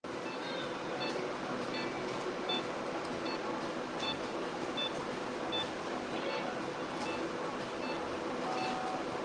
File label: Audio Message